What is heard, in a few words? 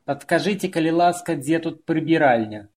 "toilet", Belarusian